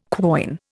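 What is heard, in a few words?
"quoin", Indian English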